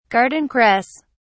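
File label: "garden cress", British English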